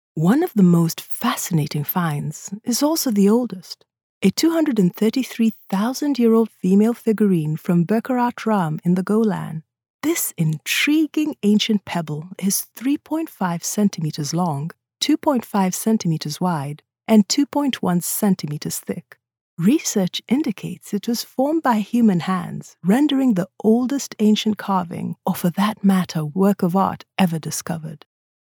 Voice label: english african

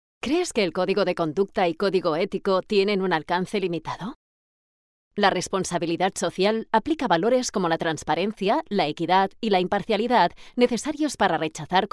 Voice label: spanish e-learning

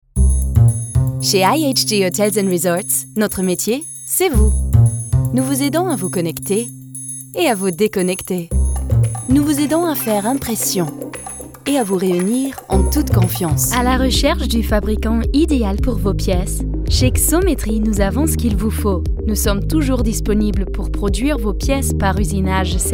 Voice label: French corporate videos

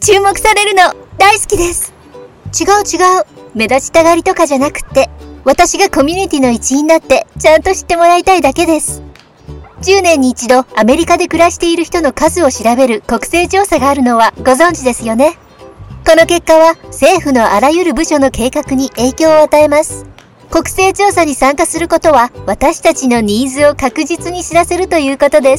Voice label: japanese promos

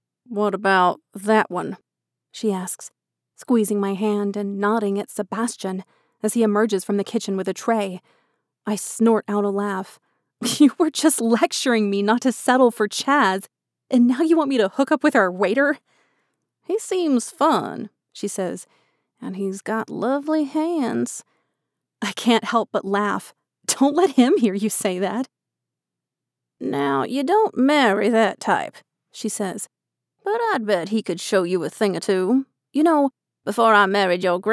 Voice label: english american audiobooks

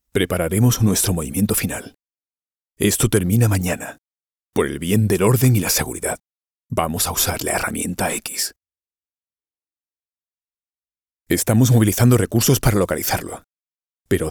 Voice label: spanish animation

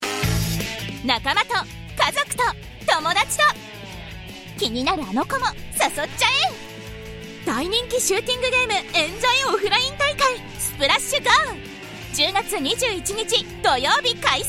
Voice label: japanese promos

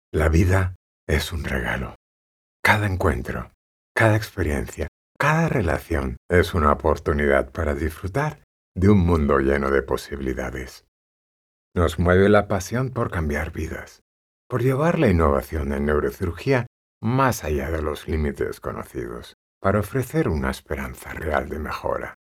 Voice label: spanish explainer videos

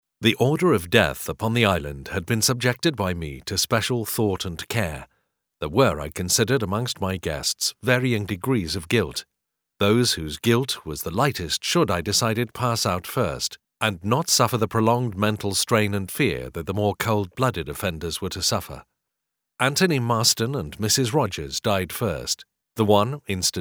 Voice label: english british audiobooks

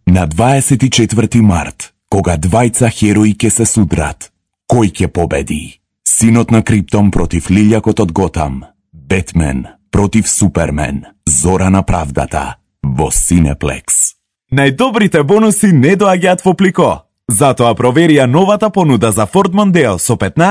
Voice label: macedonian commercial

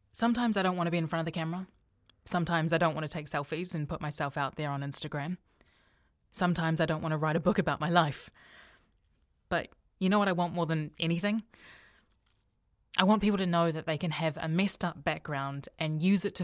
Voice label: english new zealand audiobooks

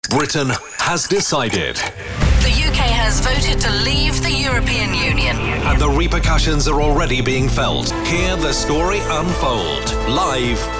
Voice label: english british political ads

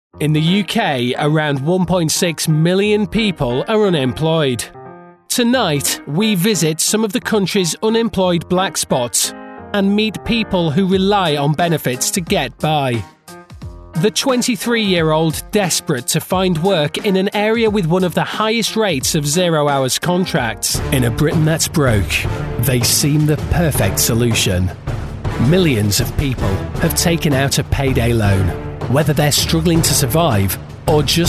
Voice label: english british documentaries

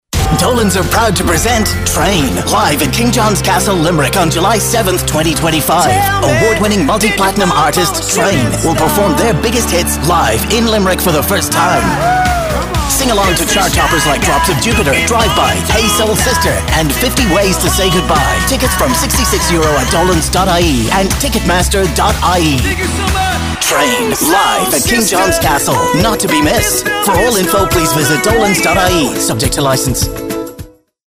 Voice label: english irish promos, voice actor; Promotions

